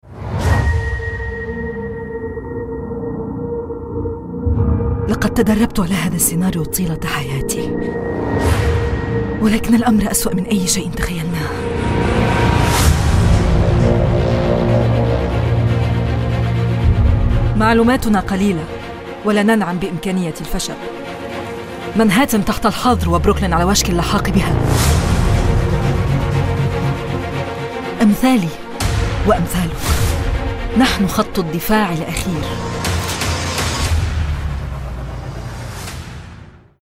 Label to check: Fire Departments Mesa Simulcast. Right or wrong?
Wrong